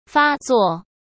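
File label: "发作", Mandarin Chinese; chinese-flashcards; hsk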